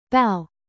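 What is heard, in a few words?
"produce", verb, British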